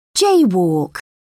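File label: "jaywalk", British English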